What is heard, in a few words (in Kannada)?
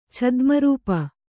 "ಛದ್ಮರೂಪ", kannada